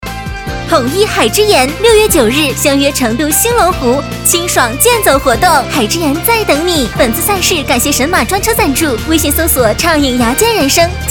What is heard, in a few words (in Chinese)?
B女 广告 激情女声; 海之言